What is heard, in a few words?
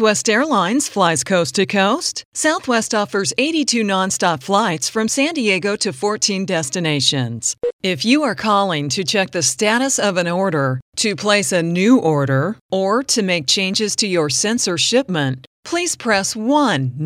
On Hold